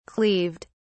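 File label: "cleaved", English, uk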